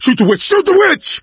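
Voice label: witch chasing, voice, male; Left 4 Dead 2; coach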